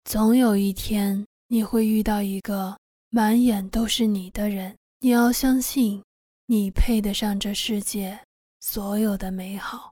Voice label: 深情舒缓

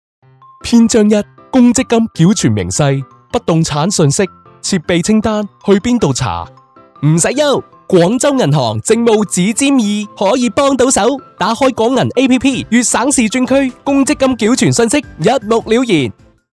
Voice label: 粤语 银行; 广州银行

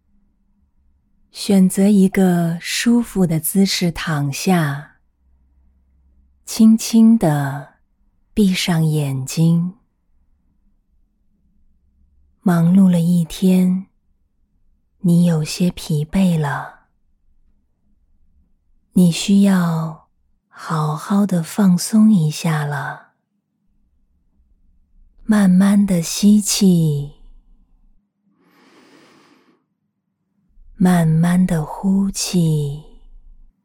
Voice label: 引导入睡

